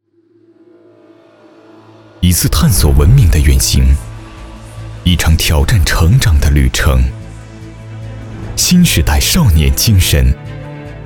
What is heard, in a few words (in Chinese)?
男 宣传片; 探索丝路文明